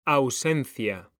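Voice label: "ausencia", Spanish, male; mekanta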